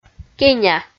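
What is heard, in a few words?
"кеня", Komi, female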